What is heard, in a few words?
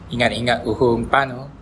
bon voyage, bonggi